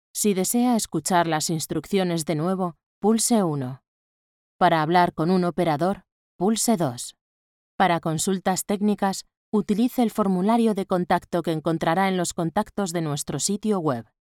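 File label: Spanish, female, IVR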